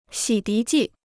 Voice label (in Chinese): "洗涤剂", Chinese